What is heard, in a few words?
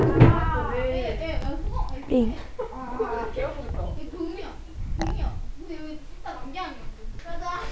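"ring", spoken word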